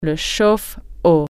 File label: water heater, french